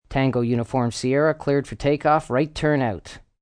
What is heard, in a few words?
Tower Takeoff, Air traffic controller, male; Pa Announcement; VoiceBox